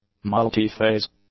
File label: "multi-phase", English; adjectives